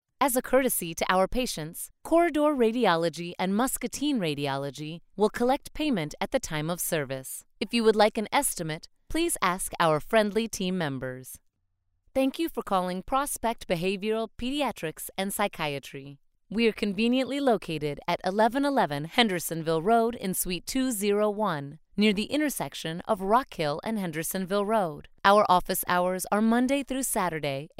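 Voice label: english american interactive voice response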